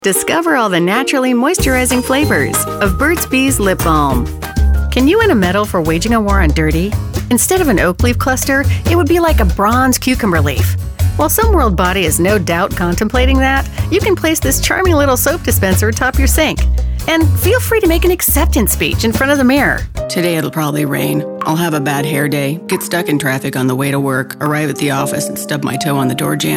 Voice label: 30s40s Female Commercial